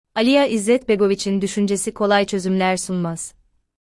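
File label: voice test Turkish, Turkey; EmelNeural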